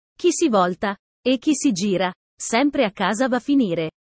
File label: italian sayings about life